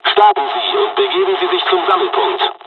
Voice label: takeoff, dialogs german; War Thunder; midway

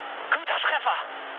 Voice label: bomb success, mood med, speech ge; War Thunder

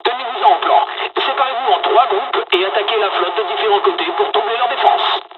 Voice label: dialog, dialogs french; War Thunder

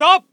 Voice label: voice ger infantry

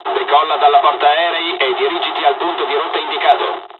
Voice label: mission fly, dialogs italian; cors mission; War Thunder

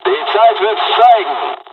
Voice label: dialog, dialogs german; War Thunder; midway

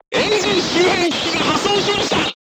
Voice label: voice message engine damaged, crew tanks japanese

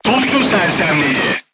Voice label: voice message gunlayer contused, turkish

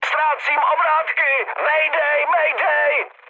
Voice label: damaged engine, speech cze, mood high

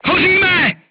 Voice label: voice message fire in tank, crew tanks czech

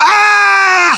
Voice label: melee attack, voiceovers english

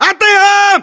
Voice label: order action boost, battle chatter, english

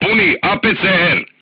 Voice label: voice message load APCR, crew tanks serbian

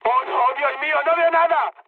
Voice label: searchlite, mood high, speech; War Thunder

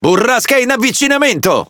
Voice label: Speech Alarms Snowstorm, IT; World Of Warships

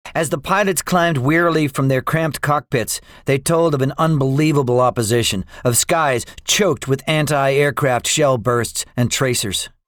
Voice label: debriefing, english; us guadalcanal; War Thunder

